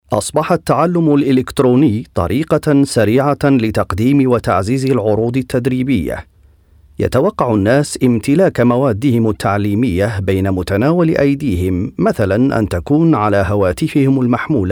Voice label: Arabic training e-learning